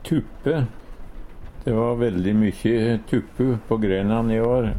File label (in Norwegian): "tuppe", Numedalsmål; De va gødt tuppeår i år